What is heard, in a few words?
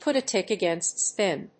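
"put a tick against sth", English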